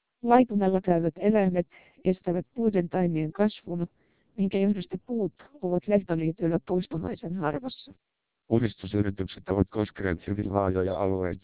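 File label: speech, Finnish, 600 bps TWELP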